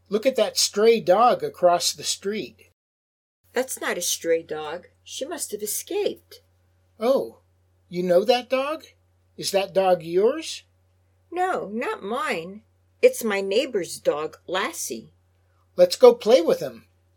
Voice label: Natural Dialog Complete